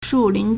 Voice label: "shùlín", Chinese; 树林 (樹林); woods; woodland; forest